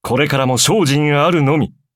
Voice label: levelup ja; cookie run

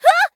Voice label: hit, en

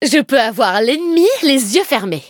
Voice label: upgrade, fr; cookie run

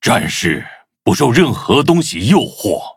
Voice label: loading, zh-hant; Cookie Run

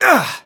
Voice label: eng attacked; Ice Pop

